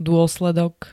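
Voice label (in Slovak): "dôsledok", Slovak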